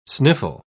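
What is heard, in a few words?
"sniffle", English word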